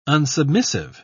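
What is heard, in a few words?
"unsubmissive", British English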